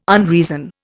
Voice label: "unreason", Indian

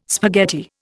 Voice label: "spaghetti", Indian

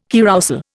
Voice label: "carrousel", Indian

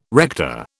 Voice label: "rector", British

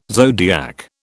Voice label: "zodiac", British

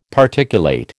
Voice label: "Particulate", American

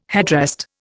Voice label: "headrest", Indian

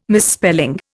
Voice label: "misspelling", Indian

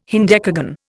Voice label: "hendecagon", Indian

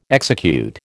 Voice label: "execute", American

